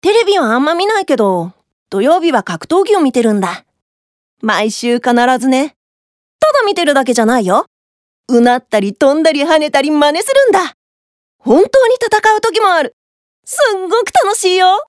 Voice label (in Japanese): セリフ 男の子